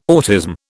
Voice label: "autism", British